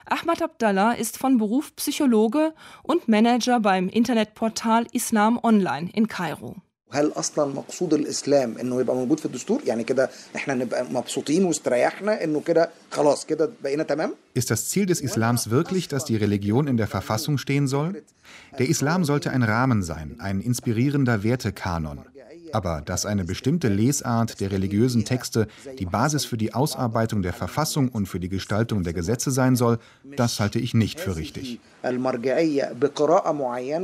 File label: Voice Over